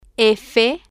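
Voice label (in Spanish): "f", spanish, female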